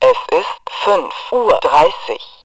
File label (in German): Zeitansage sprechende Funkarmbanduhr